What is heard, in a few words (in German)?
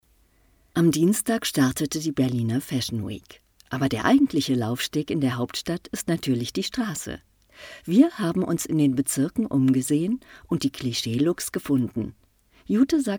moderation